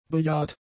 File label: "bejahrt", German; adjectives